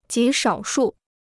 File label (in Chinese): "极少数", Chinese